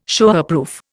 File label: "Showerproof", Indian